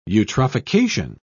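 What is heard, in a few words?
"eutrophication", British English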